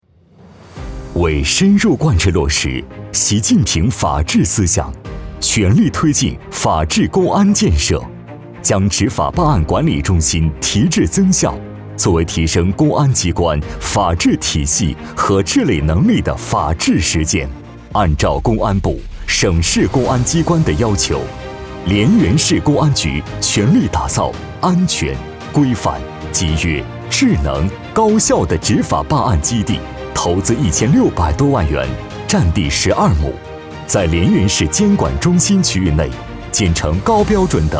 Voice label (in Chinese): 男 政府 权威 厚重; 涟源市公安局